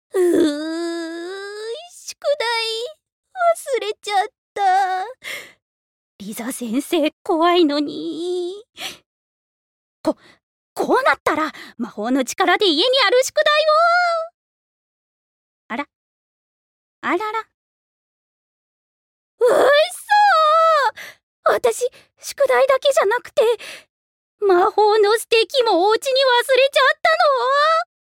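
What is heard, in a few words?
clumsy magical girl, Japanese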